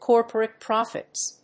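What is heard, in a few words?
"corporate profits", English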